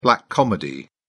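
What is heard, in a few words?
"black comedy", British English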